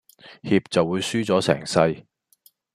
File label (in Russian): Голоса, Гонконгский